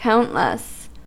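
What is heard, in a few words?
"countless", American English; pronunciations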